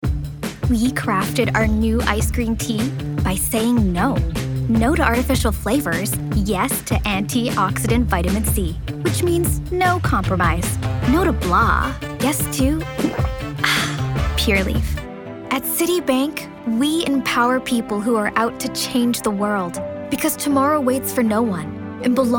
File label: Commercial